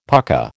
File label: "pukka", American